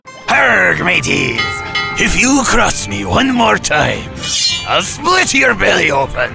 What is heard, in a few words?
Animation Pirate